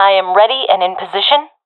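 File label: in position, professional female; vo; Counter Strike Model Pack; CS:GO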